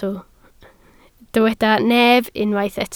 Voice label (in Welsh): "nef", Welsh